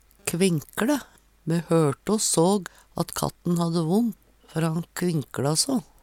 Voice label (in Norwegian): "kvinkLe", Numedalsmål; Me såg o hørte at bikkja hadde vont før ho kvinkLa so.